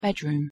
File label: "bedroom", English; the home